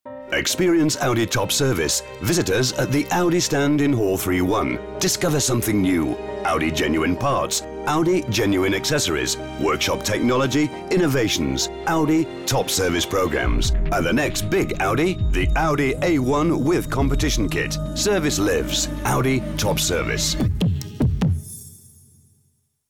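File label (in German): British englisch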